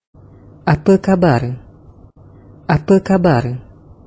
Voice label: Hello in Malaysia; Multiculturalism